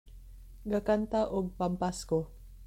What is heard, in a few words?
Cebuano